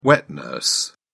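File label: "wet-nurse", British English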